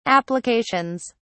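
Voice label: "applications", British English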